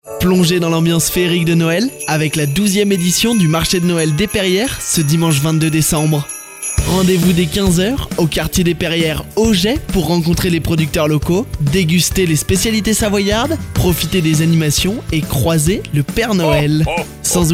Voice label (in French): spot marche noel; perrieres 2019